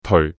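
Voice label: "teoi4", Cantonese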